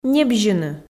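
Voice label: "небзьыны", Komi, female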